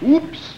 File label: "whoops" male; Voice; expletives